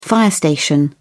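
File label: "fire station", British English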